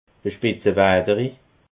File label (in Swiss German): "spitzewaderi", alsace